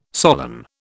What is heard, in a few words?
"solan", British